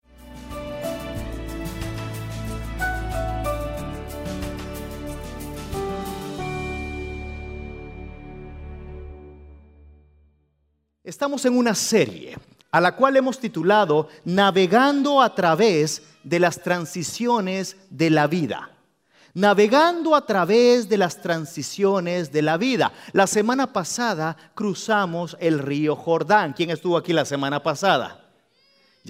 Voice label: Spanish Sermon

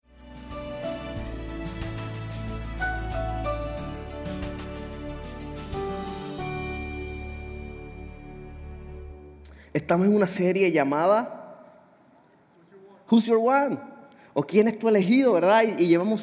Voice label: Sermon Spanish

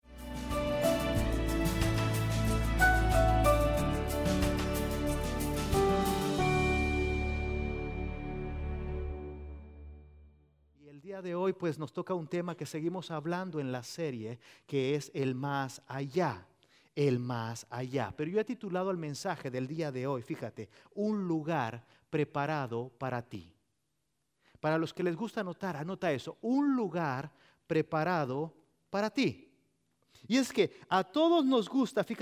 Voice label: Spanish Sermon

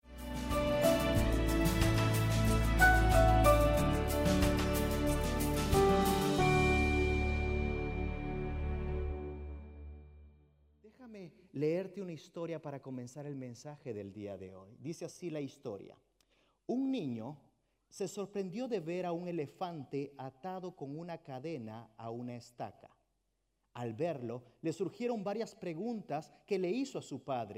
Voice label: Spanish Sermon

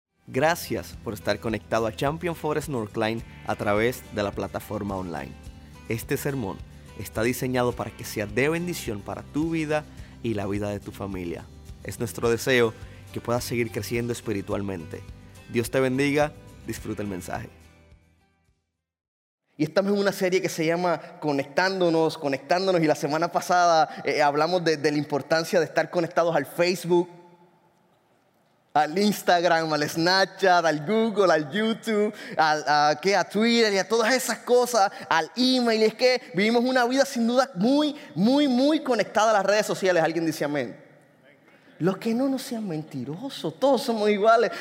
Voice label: Sermon Spanish

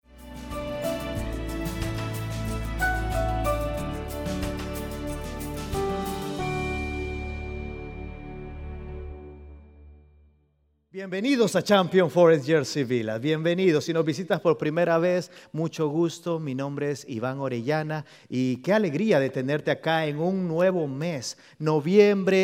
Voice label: Spanish Sermon

